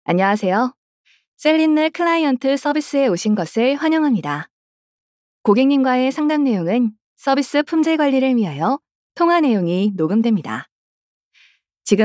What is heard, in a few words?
Korean, female